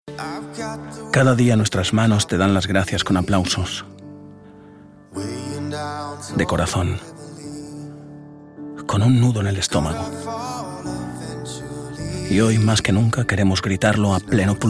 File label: male spanish nurse comercial; ideasonora